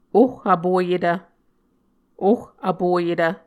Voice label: "oh vpoyetv", Muscogee